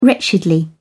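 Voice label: "wretchedly", English, British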